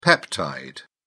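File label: "peptide", British English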